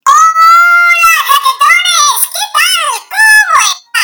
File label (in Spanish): hola jaquetones medio agudo